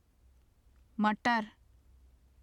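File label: "matar", Urdu; مٹر; [məʈər] (maṭar); グリーンピース（男）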